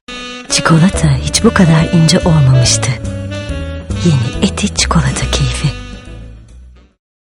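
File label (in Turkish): sicak samimi, seslendirme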